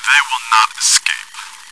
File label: taunt, voice commands, bot; svencoop